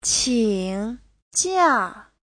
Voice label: "qing3jia4", Chinese; 请假; Giáo trình chuẩn HSK3

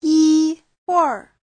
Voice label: "yi1 huir4", Chinese; 一会儿; Giáo trình chuẩn HSK3

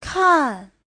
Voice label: "kan4", chinese

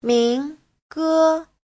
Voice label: "min2ge1", chinese; Giáo trình Hán ngữ

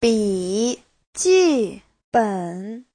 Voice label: "bi3ji4ben3", Chinese

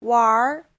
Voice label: "wanr2", Chinese

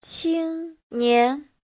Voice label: "qing1nian2", Chinese

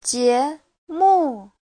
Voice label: "jie2 mu4", chinese; Giáo trình Hán ngữ

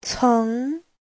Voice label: "ceng2", Chinese; Giáo trình Hán ngữ

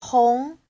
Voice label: "hong2", chinese

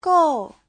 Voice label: "gou4", chinese; 够; đủ, đạt được

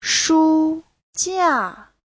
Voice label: "shu1jia4", Chinese

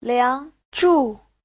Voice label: "liang2 zhu4", chinese; 梁祝; Lương Chúc

